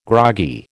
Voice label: "groggy", American